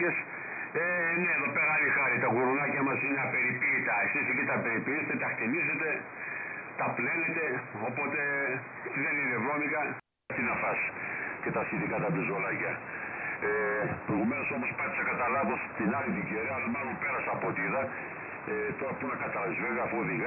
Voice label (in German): Amateurfunk; 14285KHz; Kiwi; Perseus